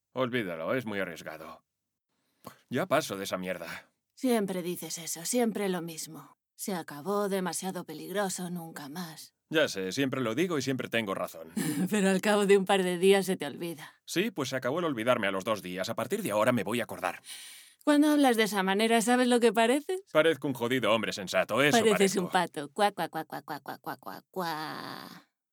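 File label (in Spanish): doblaje España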